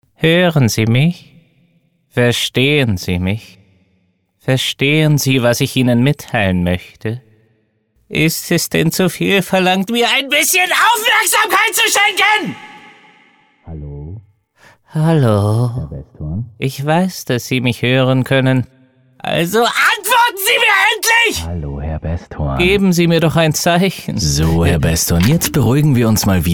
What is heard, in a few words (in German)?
Psychopath